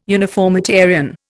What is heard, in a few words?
"uniformitarian", Indian